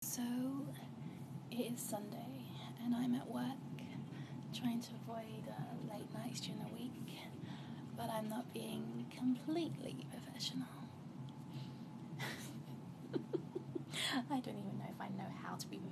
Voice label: Rain sounds, F4A; Watching porn; Being professional at work